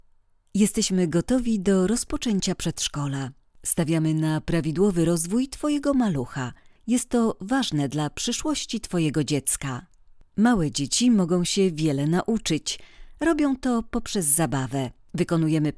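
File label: Polish; Home Studio Read; Røde NT1 Mic; Audacity software; Connect via Source Connect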